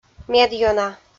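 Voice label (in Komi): "медъёна", Komi, female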